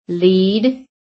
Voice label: "lead", american; PHONETIC